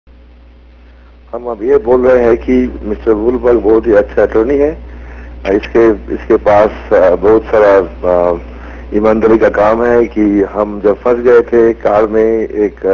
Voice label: hindi